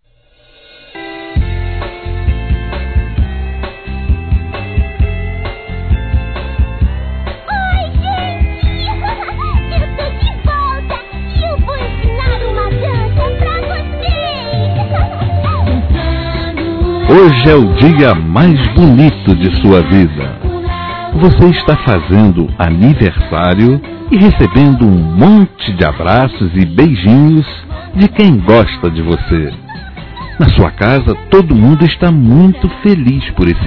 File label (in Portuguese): Neutro Criança Masculino; Telemensagens 2017; Aniversários; Aniversário Infantil